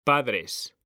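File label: "padres", Spanish; mekanta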